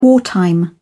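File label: "wartime", British English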